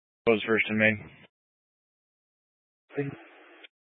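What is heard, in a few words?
Incident Tac 3, Scanner audio recording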